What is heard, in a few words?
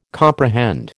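"comprehend", American English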